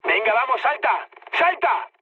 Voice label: bailout, mood med, speech; War Thunder